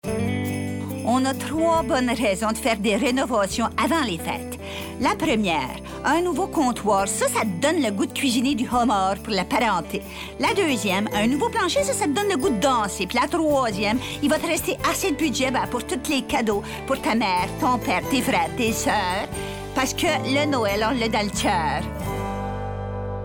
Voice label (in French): voix femmes